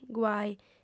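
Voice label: "guai", female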